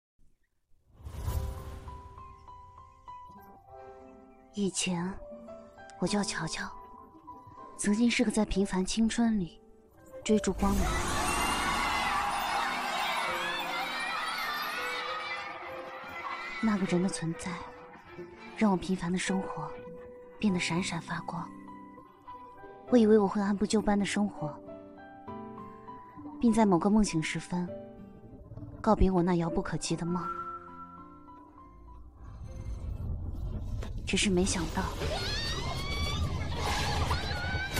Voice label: 小说 配音; 老婆粉了解一下; 盛乔

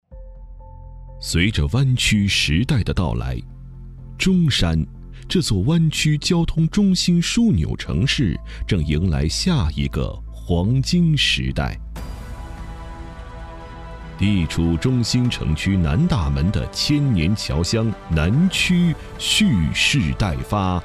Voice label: 开发区宣传

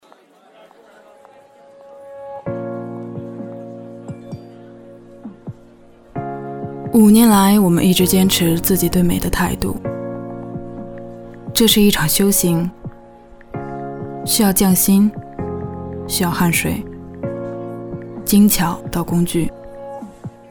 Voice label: 明媚 广告 时尚 精致; 每一个你

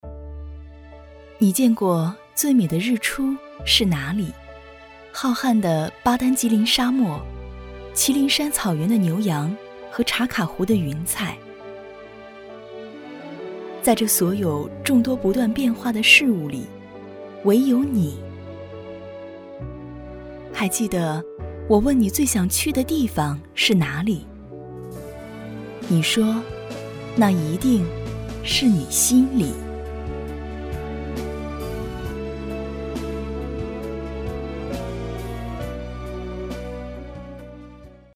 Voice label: 旁白 样音

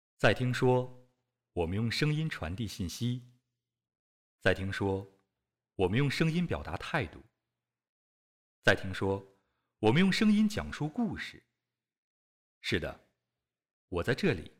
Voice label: 讲述类

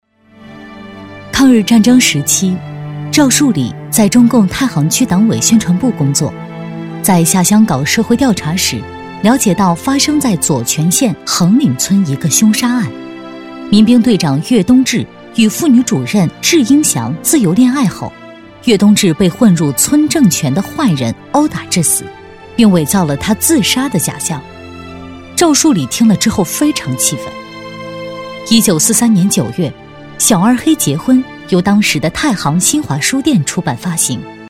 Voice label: 纪录片类; 陕西作家赵树理

